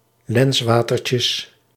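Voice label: "lenswatertjes", Dutch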